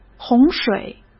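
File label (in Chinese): "洪水", Mandarin Chinese; chinese flashcards; hsk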